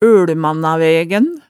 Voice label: "ølmannavægæn", hemsedalsmaalet tale

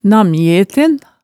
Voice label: "namnjeten", Hemsedal

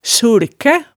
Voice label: "sulkæ", hemsedalsmaalet

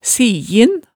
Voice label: "sijin", hemsedalsmaalet

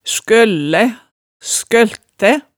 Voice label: "skøllæ", hemsedalsmaalet

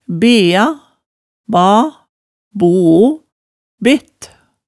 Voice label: "bea, ba, boo, bett", hemsedals maalet tale

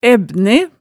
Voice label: "æbbne", hemsedalsmaalet tale

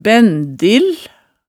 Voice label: "bændil", hemsedalsmaalet tale

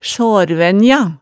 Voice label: "saarvænja", hemsedalsmaalet

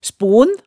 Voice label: "spon", hemsedalsmaalet

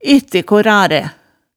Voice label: "ettekorare", hemsedalsmaalet

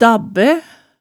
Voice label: "dabbæ", hemsedalsmaalet tale

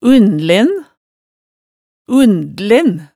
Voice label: "unnlen", hemsedalsmaalet tale

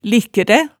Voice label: "likkræ", hemsedalsmaalet tale

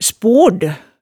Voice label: "spold", hemsedalsmaalet

